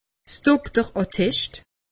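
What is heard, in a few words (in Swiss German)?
"dokterattest", Alsatian; medecine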